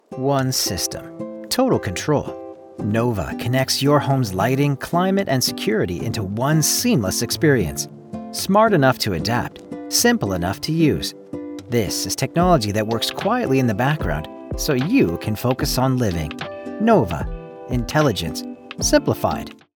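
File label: WITH MUSIC; NOVA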